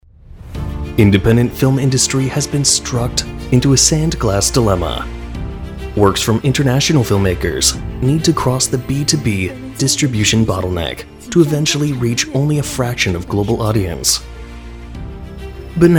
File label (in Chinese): 宣传片 大气 磁性